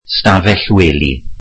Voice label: welsh bedroom

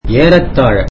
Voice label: tamil about

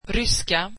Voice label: swedish Russian

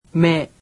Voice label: japanese alphabet "me"

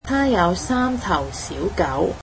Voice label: "she has three small dogs", Cantonese

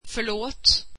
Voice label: swedish Sorry

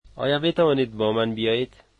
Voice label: can you come with me, dari

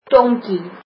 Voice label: donkey, afrikaans

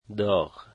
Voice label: hot, Dari

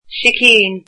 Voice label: "chicken", Irish

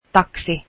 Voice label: taxi, finnish

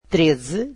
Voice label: portuguese thirteen

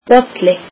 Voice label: icelandic cup

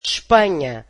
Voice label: "Spain", Portuguese

